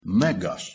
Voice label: "megas" Ancient Greek